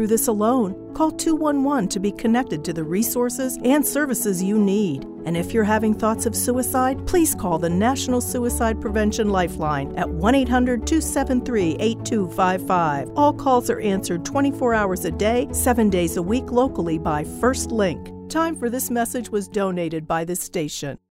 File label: COVID-19 PSA RADIO; FirstLink